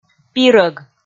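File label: "пирӧг", Komi, female; audio dict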